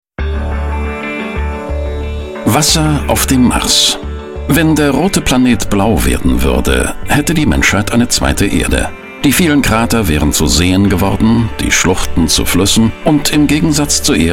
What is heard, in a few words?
Doku